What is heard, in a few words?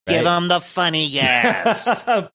give me the funny gas funny